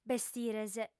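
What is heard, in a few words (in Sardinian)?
"bestires", female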